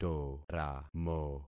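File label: "toramo", concatenated syllables; Affixing experiment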